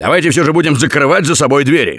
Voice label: close the door, russian; Left 4 Dead 2; Gambler